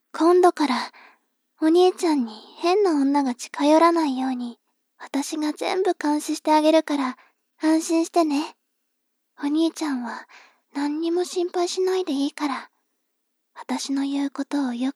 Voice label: 妹 台詞

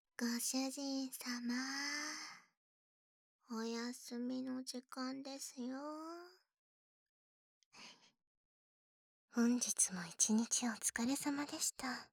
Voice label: 二人のメイドと羊数え(羊数え 添い寝); あみ＆みか

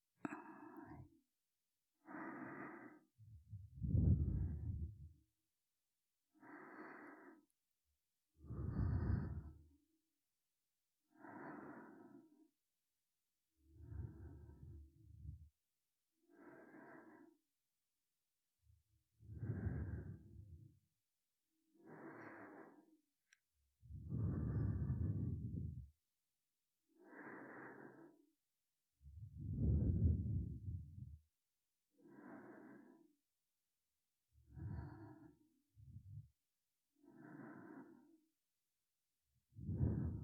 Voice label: 添い寝 (右側), ASMR

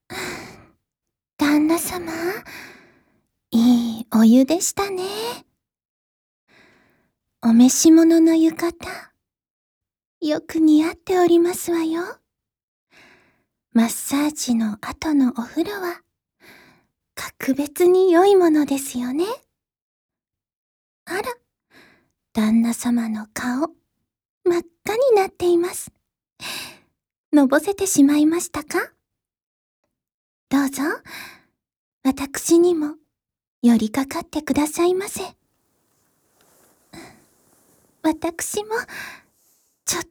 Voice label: ゆったり、あまあま、添い寝

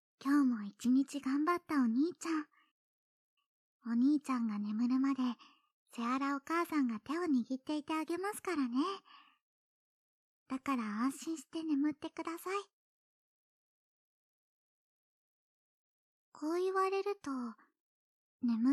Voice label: おやすみなさい（右側）

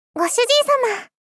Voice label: ご主人様 少女×高音域

